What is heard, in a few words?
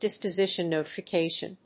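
"disposition notification", English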